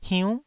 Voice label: "hiunnh9", Taiwanese syllable